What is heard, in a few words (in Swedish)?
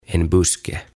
"En buske" "Pensas"